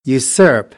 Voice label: "usurp", American, English; ɝː - ɜː